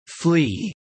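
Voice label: "fly" British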